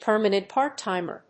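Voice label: "permanent part-timer", English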